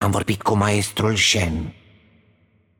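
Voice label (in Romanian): Game Outro, Romanian; Kennen; bloom minigame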